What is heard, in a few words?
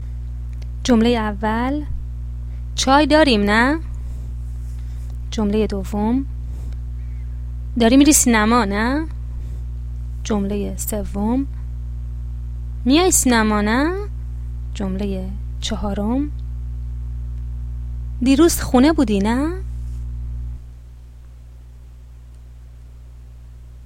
pronunciation, Persian